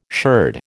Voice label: "sherd", American

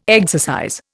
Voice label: "exercise", Indian

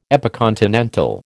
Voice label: "epicontinental", American

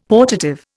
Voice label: "portative", Indian; Dictionary

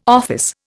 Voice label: "office", Indian

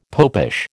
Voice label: "popish", American

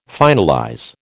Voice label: "finalize", American

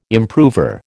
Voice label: "improver", American

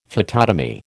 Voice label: "phytotomy", American

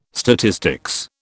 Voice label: "statistics", British

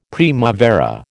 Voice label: "primavera", American

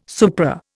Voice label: "supra", Indian

